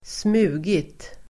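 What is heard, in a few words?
"smugit", Swedish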